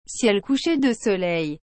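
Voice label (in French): "ciel coucher de soleil", French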